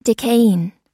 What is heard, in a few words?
"decaying", pronounce